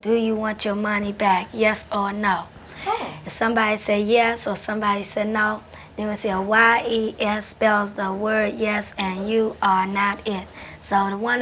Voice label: Conversation with 10 year old black female Washington DC, american english dialect recordings